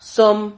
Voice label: "som", Silabas

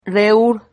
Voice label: "rehur", Silabas

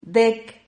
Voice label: "dec", Silabas

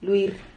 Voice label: "luir", Silabas

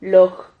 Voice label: "loj", Silabas

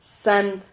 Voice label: "sand", Silabas

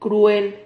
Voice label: "cruel", Silabas

